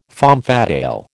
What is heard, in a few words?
"femme fatale", American